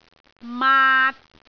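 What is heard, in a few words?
"maat3", Cantonese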